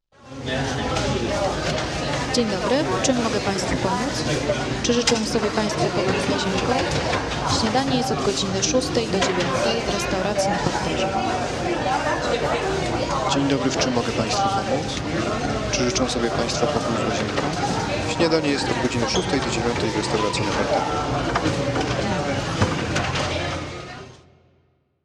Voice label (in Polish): Lekki ubytek i aparat sluchowy